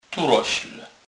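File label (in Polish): "turosl", Kurpie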